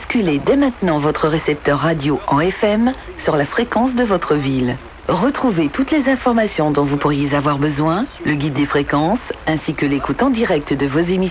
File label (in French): France Inter Message arret Grandes Ondes 162 khz